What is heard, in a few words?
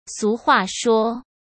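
"俗话说" Mandarin Chinese; hsk; chinese-flashcards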